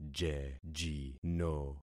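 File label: "jejino", concatenated syllables; Affixing experiment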